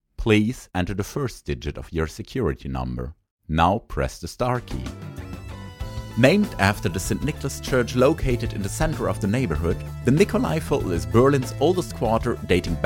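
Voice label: German Accented English; GoLocalise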